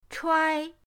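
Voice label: "chuai1", Mandarin Chinese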